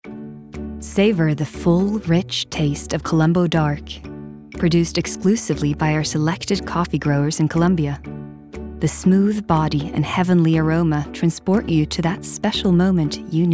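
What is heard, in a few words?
us commercial, American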